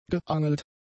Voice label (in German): "geangelt", German; adjectives